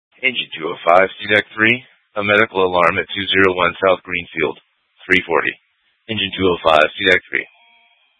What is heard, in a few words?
FD Dpts VHF 156.240 Patch